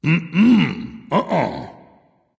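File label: disagree, dragon; fafnir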